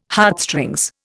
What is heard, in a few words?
"Heartstrings", Indian